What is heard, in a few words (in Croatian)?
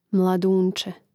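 "mladunce", Croatian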